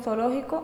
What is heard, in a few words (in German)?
"zoologico", Andalusien Sevilla